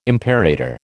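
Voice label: "imperator", American English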